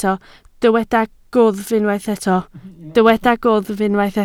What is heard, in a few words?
"gwddf", Welsh